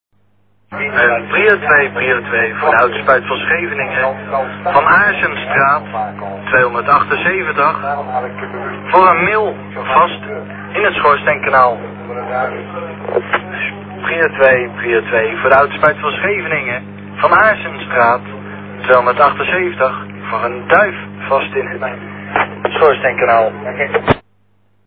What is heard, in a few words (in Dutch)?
stemmetjes; Scanners